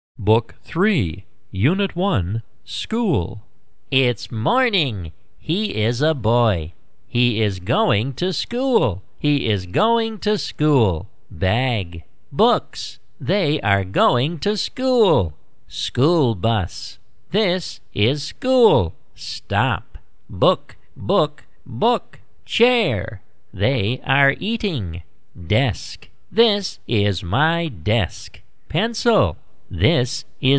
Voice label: 外籍男 动画配音; 迪士尼

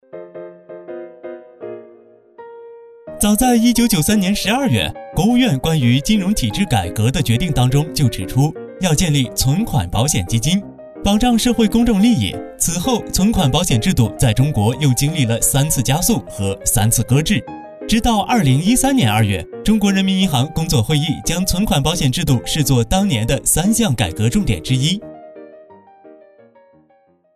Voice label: 男 电台节目 柔和 青春

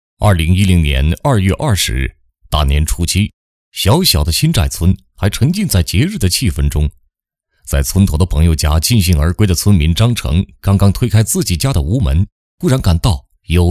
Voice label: 男 刑事类案件 严肃 磁性

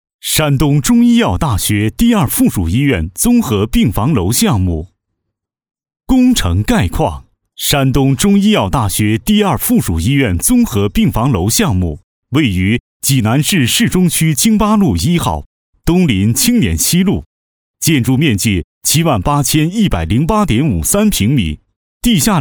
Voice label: 男 专题 工程汇报片; 山东中医药大学工程汇报片

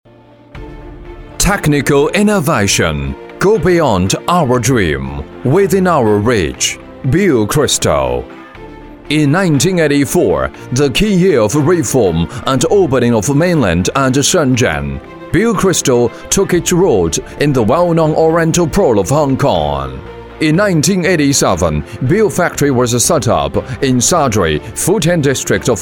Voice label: 英男 自信悦心 专题; 伯恩

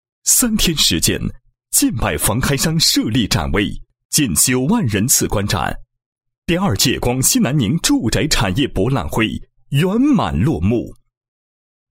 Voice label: 男 闭幕词; 住博会回顾片

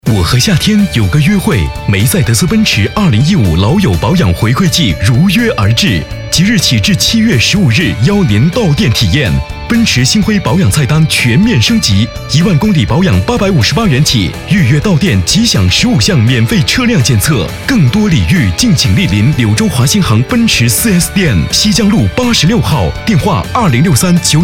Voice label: 广告 时尚洋气; 奔驰广告

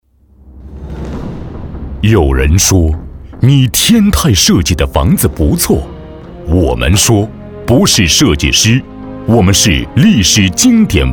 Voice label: 男 专题 恢弘大气专题, 浑厚; 国语男声配音; 天泰摩尔城

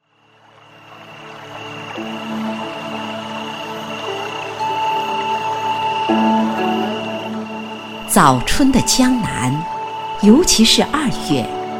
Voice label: 女 散文; 后乐园

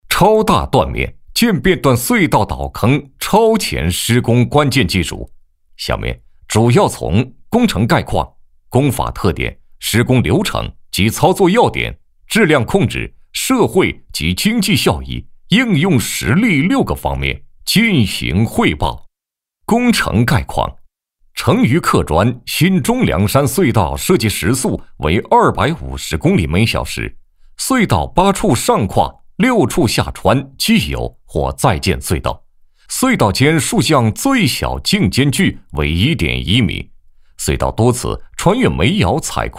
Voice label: 男100号-专题-桥路工程介绍, 国语男声配音

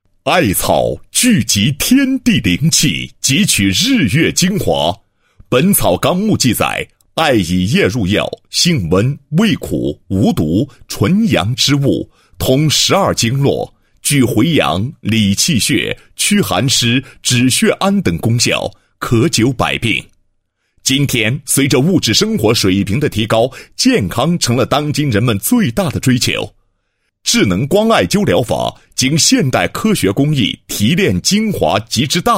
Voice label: 男 医疗; 艾灸与汗蒸红外线

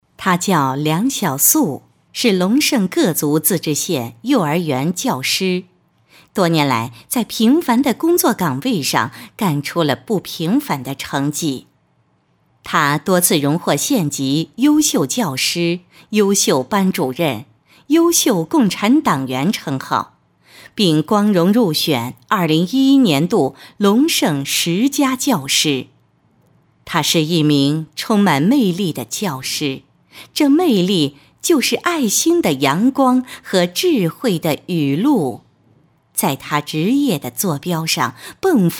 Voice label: 人物样 幼儿园教师

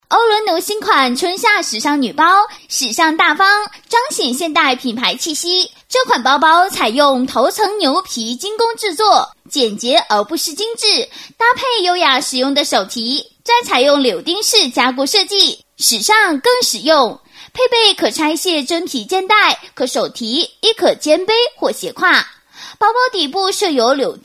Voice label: 角色模仿 台湾腔时尚女包, 国语女声配音

